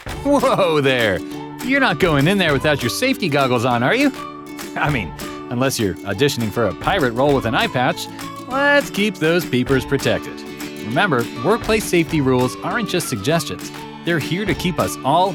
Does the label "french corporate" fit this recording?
No